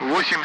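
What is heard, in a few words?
Speech Russian; Allied Flight; Digits; DCS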